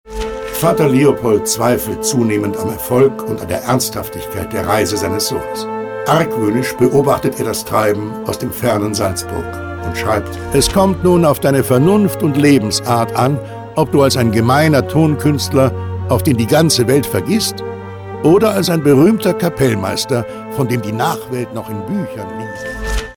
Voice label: Erzähler; Mozart

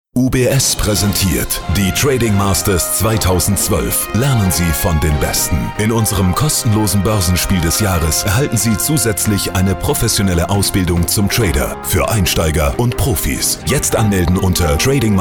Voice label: TV-Werbung

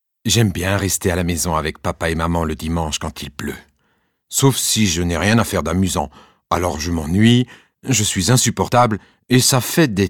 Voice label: Lesung Kinder

